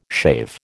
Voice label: "shave", American